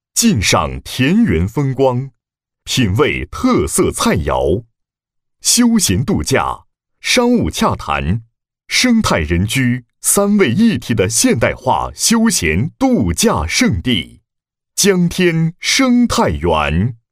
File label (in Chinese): 男80 广告 生态园; 江天生态园; 央视农广